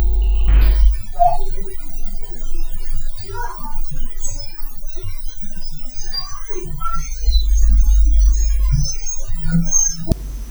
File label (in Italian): voce bambina; Castello Sanguinetto